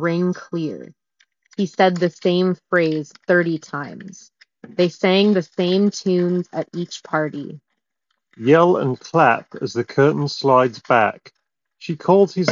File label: Sony WF1000 XM5 Office microphone sample